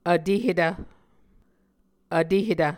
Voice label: "vtehetv", muscogee